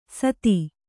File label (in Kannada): "ಸಾದಿ", kannada